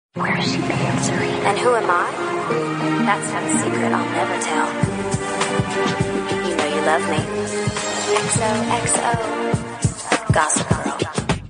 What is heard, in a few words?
iphone girls message